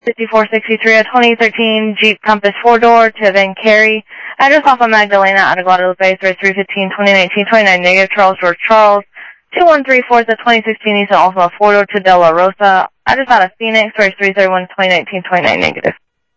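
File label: Sheriff East County, scanner audio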